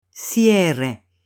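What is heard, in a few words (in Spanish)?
"cierre", Spanish, female; ROPA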